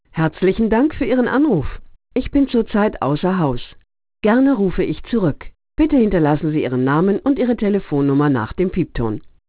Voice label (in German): ansage (w)